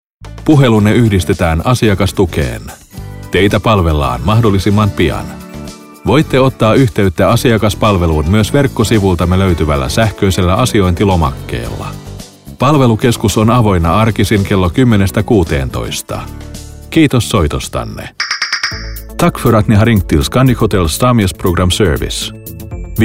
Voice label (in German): telefonansagen sprecher fin; Native Speaker